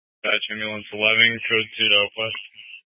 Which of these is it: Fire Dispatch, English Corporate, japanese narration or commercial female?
Fire Dispatch